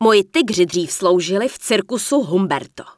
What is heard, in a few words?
Night Elf Female Sentinel NPC Vendor; wowpreklad; czwow